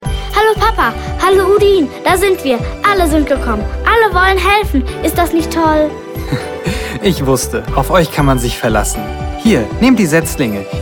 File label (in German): hoerspiel